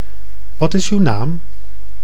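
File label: "Wat is uw naam", Dutch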